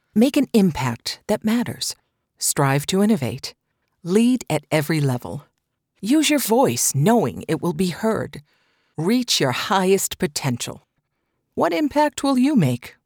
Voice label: Hiring Ad State Agency